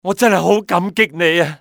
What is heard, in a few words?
thank, male; XBOX; civ